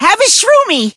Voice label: skill magic candy, en